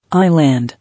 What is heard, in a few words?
"Island", English